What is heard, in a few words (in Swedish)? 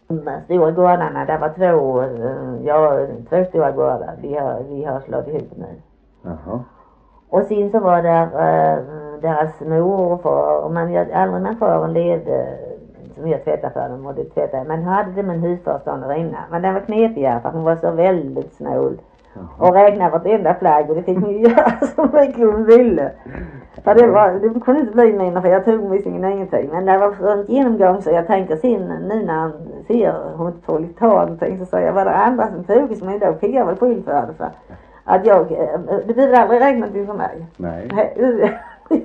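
Harplinge Halland, dialekter; Folkminnen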